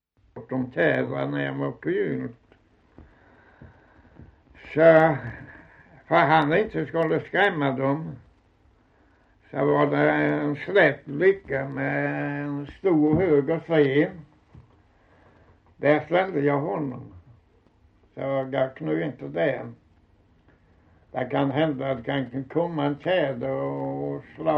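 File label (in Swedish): Osby Skåne, svenska sagor dialekter; Folkminnen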